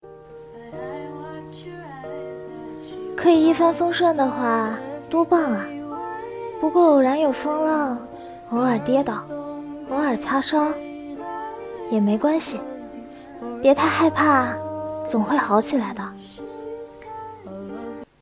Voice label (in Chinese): 情感念白